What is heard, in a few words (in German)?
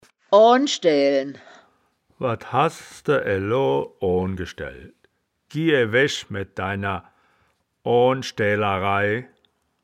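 "oan schdaeaelen", wittlicher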